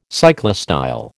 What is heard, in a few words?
"cyclostyle", American